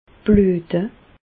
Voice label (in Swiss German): "bluete", Bas Rhin; medecine; saigner